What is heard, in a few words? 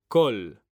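"COL", Spanish; mekanta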